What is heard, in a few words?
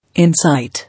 "Insight", English